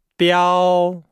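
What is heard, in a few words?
"biao", Chinese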